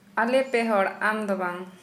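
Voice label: Santali, female, we3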